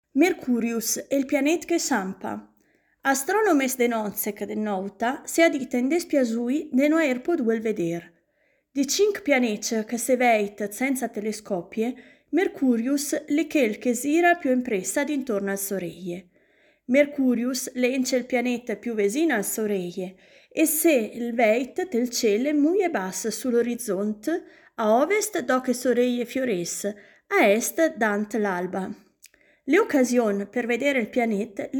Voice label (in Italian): lingua ladina; Mercurius l pianet che sciampa